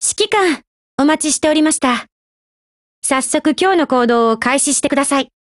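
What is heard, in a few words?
HELLO, Japanese; GF Alarm; Welrod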